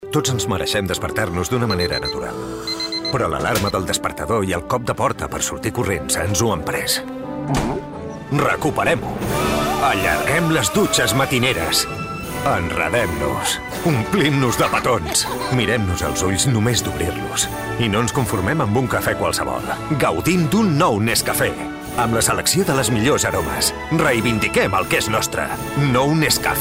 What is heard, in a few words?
male catalan coffee comercial; ideasonora